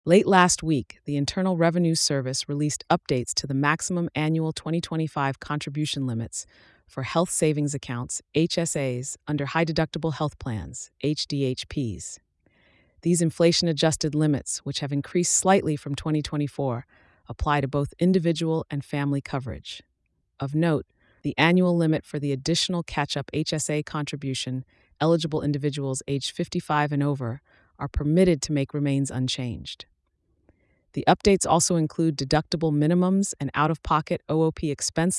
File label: Narration; 2025 Limits Blog